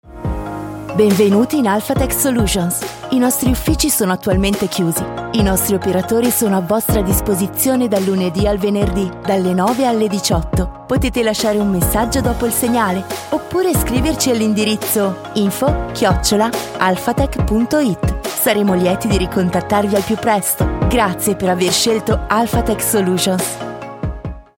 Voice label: Italian system announcements

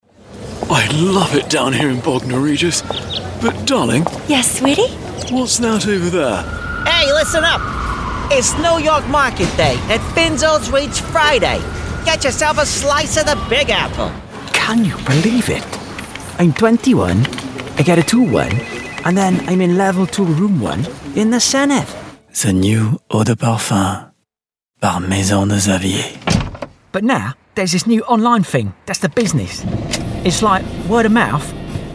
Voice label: character

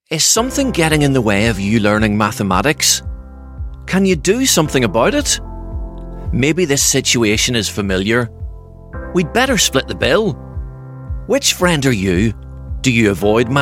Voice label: elearning

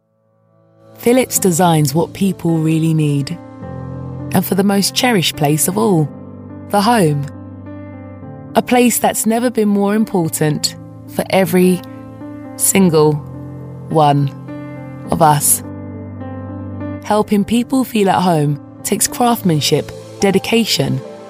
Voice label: natural

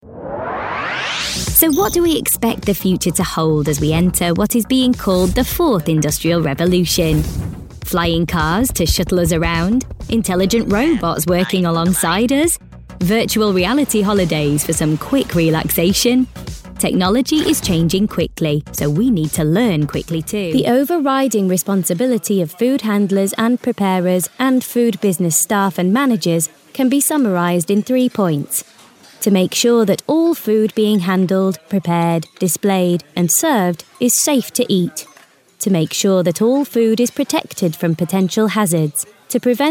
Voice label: corporate

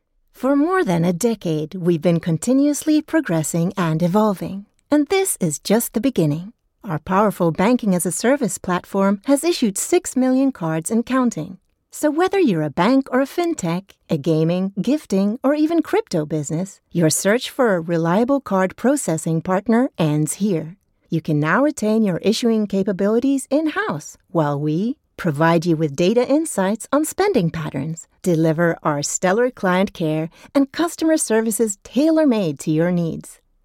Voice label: swedish english corporate

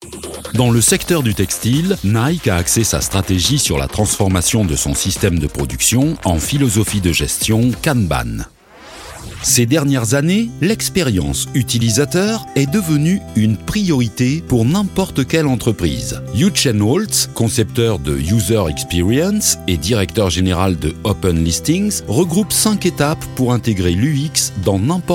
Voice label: male French, e-learning

